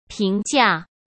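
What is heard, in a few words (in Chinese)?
"平价", Mandarin; chinese-flashcards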